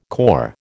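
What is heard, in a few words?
"corps", American English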